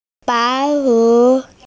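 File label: "white cloud", Mandarin, hearing-impaired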